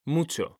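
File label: "mucho", Spanish, male; mekanta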